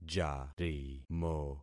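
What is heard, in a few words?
"jarimo", concatenated syllables; Affixing experiment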